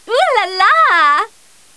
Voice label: cheer; maeve